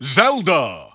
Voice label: Announcer; Zelda